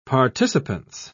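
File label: "participance", British English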